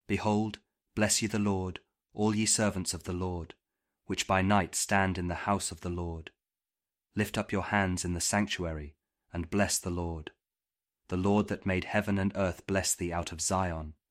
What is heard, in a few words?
psalm kjv king james audio bible